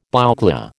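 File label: "bouclé", American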